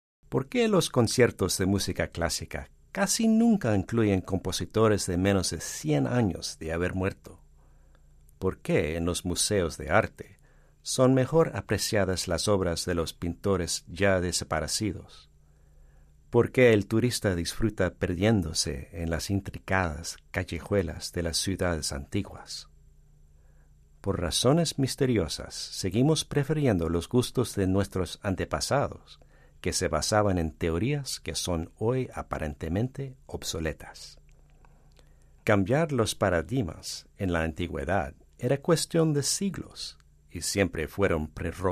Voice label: spanish; el fin de las teorias